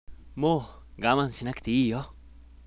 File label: sample sweet mid, voice